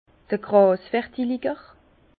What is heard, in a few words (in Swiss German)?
"grasvertilger", Bas Rhin; vin; désherbant